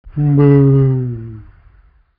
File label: monster mumble thanks